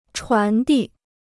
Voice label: "传递", Chinese